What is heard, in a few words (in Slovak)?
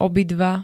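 "obidva", Slovak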